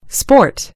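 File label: "sport", British English; gcompris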